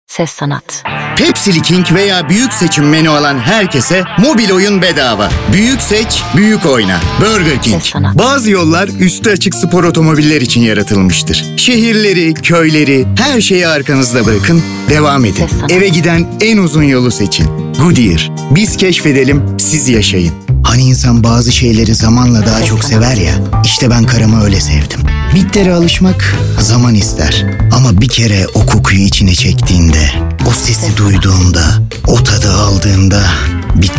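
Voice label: Turkish, seslendirme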